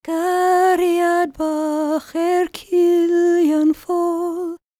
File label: WELSH F, World Voices